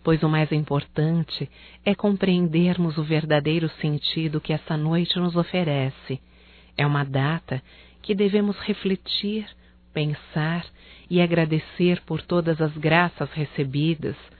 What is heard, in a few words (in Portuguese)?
NATAL ROMANTICO; Natal e ano novo; fem; Telemensagens 2017